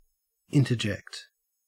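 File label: "interject", Australian English; pronunciations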